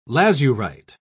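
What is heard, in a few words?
"lazurite", English, British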